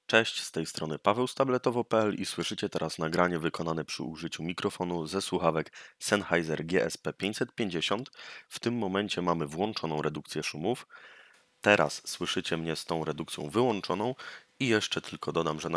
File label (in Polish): mikrofon